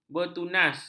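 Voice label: "bertunas", Malay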